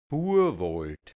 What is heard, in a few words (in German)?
"Buawold", hianzisch Dialekt Burgenland; Buchenwald